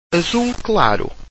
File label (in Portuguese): "azul claro", Portuguese